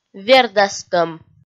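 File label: "вердастӧм", Komi, female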